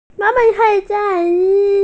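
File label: "dai1", Mandarin Chinese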